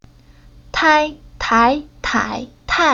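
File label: "Tai", chinese syllable; Уроки фонетического блока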